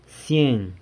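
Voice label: "sieng", San Lucas Quiaviní Zapotec; hundred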